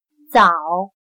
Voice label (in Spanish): "澡", Chino